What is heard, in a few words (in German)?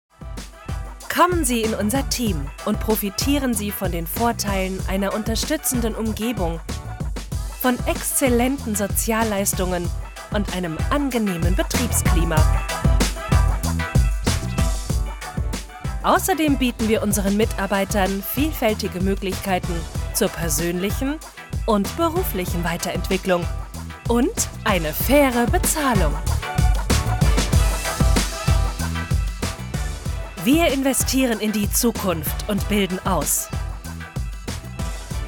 Recruitingfilm; Westerwaldbahn bus